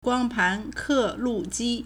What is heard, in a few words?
"guang1pan2ke4lu4ji1", Chinese